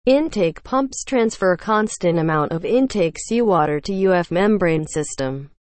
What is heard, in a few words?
english, seawater desalination; watercompany